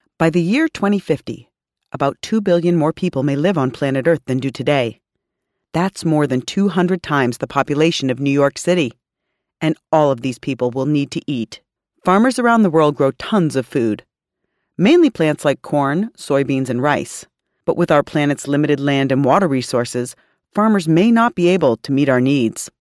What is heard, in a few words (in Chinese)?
美式英语女声